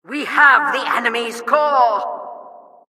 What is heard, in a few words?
announcer capture flag team stolen